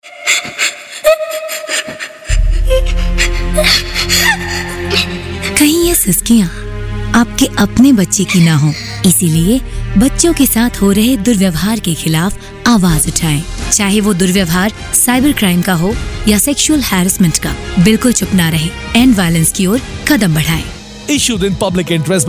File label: Public Service Announcement Promo; Radio City; radio4child awards 2024